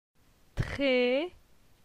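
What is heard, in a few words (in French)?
"Tre", French; phonetique; la syllabe e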